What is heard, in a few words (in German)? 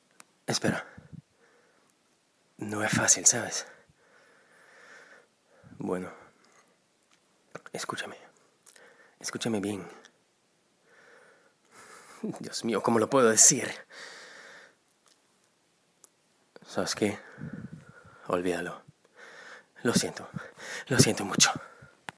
Spanisch